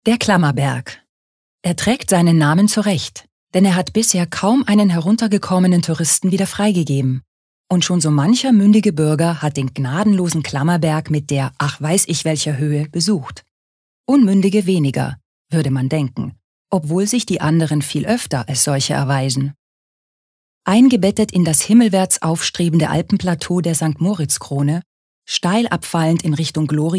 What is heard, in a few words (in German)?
Kommentar, Neutral